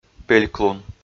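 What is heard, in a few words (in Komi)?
"пельклун", Komi, male; audio dict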